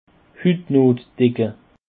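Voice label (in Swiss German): "hutnot", Alsatian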